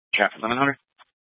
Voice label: Sheriff Dispatch; Mesa County